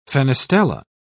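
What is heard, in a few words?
"fenestella", English, British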